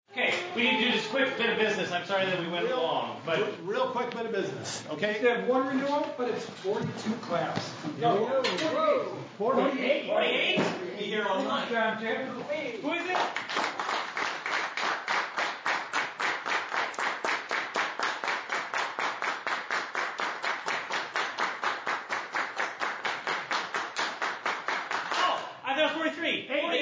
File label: ANNOUNCEMENTS